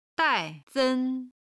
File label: "dai4 sen1", chinese